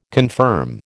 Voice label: "confirm", American